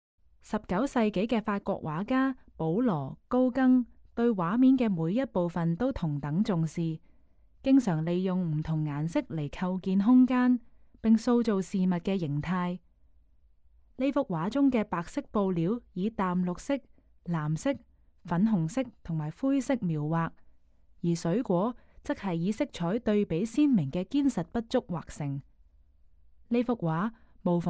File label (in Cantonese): Canto; 窗前果盤和啤酒杯; 保羅．高更（1848–1903年）; 可能作於1890年; 布面油畫，50.8 x 61.6厘米; 英國國家美術館; 從波提切利到梵高：英國國家美術館珍藏展